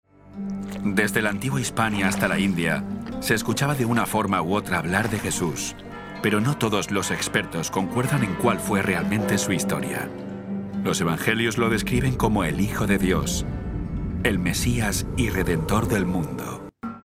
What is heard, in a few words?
spanish documentaries